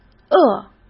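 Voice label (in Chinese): "恶", Mandarin Chinese; chinese-flashcards; hsk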